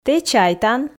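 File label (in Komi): "тэ чайтан", Komi, female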